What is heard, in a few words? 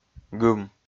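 "гым", Komi, male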